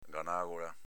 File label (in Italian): "ganagola", dialetto veneto; s.f. tonsilla